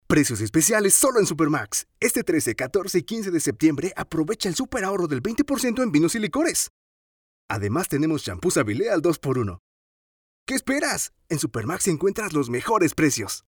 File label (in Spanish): promo super; Demo comerciales